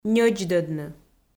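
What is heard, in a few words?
"ньӧдздӧдны", Komi, female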